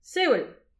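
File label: "sewel", Breton; petit precis de prononciation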